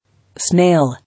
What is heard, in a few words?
"Snail", English